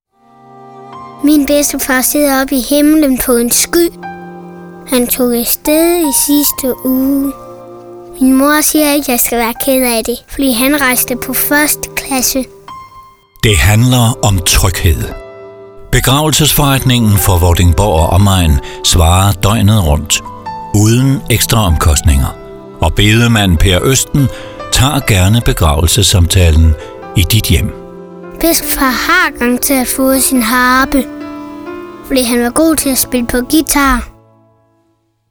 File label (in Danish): Reklamelyd; Begravelsesforretningen Vordingborg Agerbaek